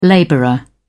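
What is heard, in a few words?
"labourer", British English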